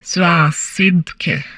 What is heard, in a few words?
humanoid female; Stellaris; humanoids